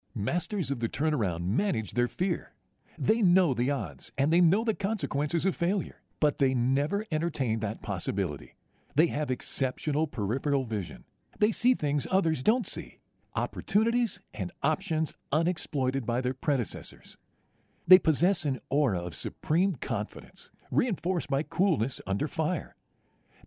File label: AUDIOBOOK, voiceover